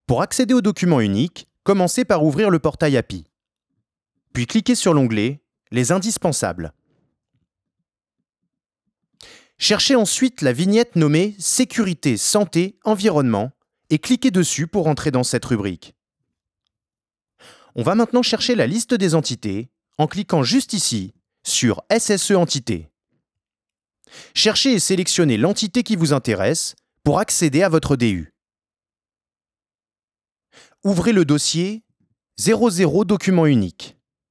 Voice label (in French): VO Sympathique